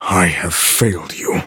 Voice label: defeat, en; Cookie Run